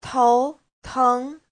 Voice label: "tou2teng2", Chinese; 头疼 : nhức đầu; Giáo trình Hán ngữ